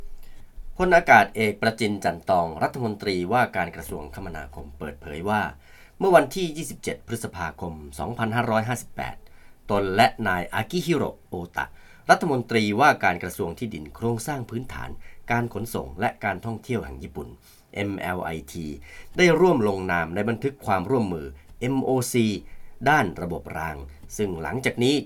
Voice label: news